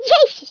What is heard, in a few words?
"Whatthe", Dutch; Speech; International; 11khz